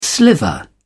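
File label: "sliver", British English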